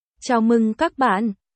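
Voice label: Vietnamese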